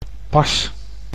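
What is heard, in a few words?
"pas", Dutch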